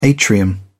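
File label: "atrium", English, British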